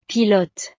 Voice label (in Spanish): "pilote", frances; profesiones